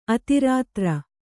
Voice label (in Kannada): "ಅತಿರಾತ್ರ", Kannada